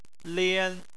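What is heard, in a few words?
"lian", Chinese, first tone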